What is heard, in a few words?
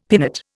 "pinnate", Indian; Dictionary